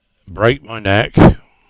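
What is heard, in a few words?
"Break-My-Neck", English; place-names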